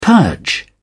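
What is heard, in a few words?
"purge", British English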